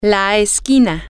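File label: the corner, Spanish, female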